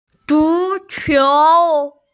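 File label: "zu2qiu2", Mandarin Chinese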